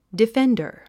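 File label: "defender", English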